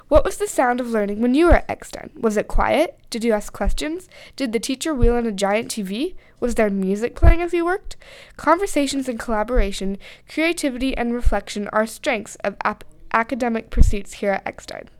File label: Classroom Noise Narration